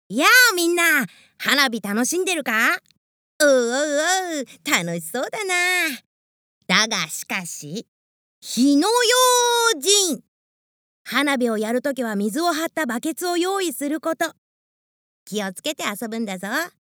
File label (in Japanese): アニメセリフ